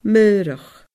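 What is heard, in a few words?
"maorach", Scottish Gaelic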